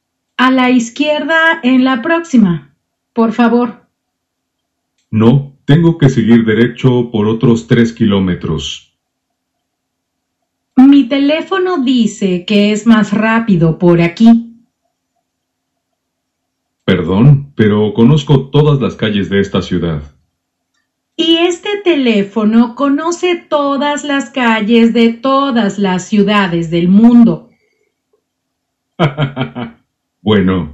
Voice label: Spanish Taxi; Spanish Stories